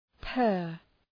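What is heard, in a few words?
"per", English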